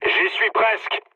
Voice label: near waypoint mood low, speech fr; War Thunder

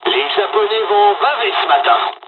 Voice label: dialog, dialogs french; War Thunder

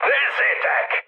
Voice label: enemy detected, speech hun; War Thunder

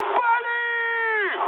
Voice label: attack position, mood high, speech ru; War Thunder

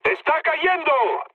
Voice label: aircraft destroyed mood med; War Thunder

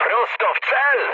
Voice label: bomb success mood high, speech pol; War Thunder

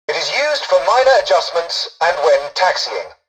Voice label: tutorial dialogue, english; War Thunder

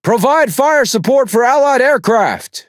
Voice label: Speech Quick Commands Ally Planes, EN; World Of Warships

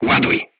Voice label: voice message load, crew tanks polish

